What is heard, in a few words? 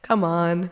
pleasant